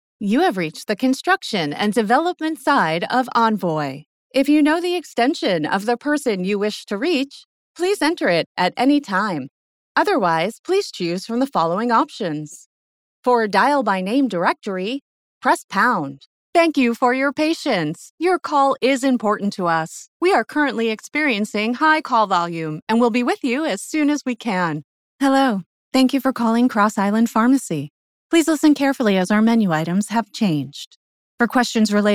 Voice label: IVR